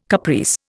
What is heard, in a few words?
"caprice", Indian